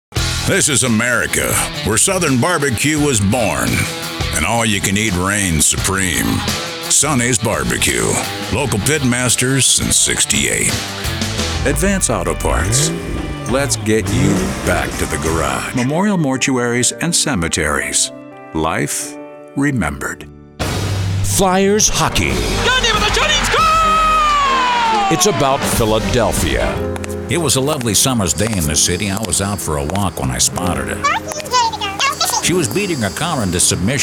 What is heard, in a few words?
Commercial Male, Powerful, Deep, Strong; TV and Radio, Character; Commercial Voiceover